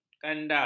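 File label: "kanda", Malay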